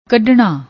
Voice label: "kaddnna", Punjabi

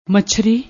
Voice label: "machri", Punjabi; ਮਛਰੀ

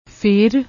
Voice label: "fer", Punjabi; ਫੇਰ; پھیر; noun, masculine; turn, rotation, revolution; change, vicissitude

